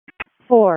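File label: Wake County EMS ALERT, p25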